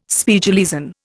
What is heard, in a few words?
"Spiegeleisen", Indian